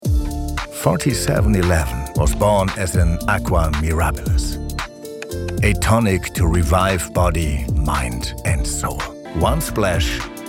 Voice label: Image ENGLISH